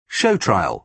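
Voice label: "show trial", British English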